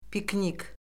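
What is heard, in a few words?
"piknik", Azerbaijani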